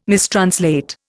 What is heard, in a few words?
"mistranslate", Indian